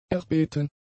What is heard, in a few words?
"erbeten", German; adjectives